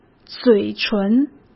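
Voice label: "嘴唇", Mandarin Chinese; chinese-flashcards; hsk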